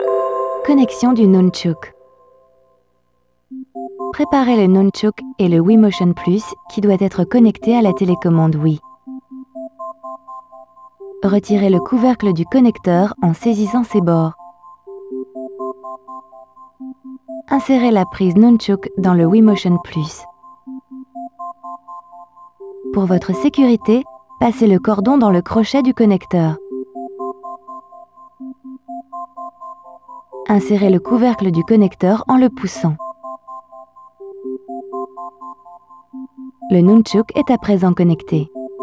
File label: Connecting the Nunchuk (French); the legend of zelda skyward sword wii gamerip 2011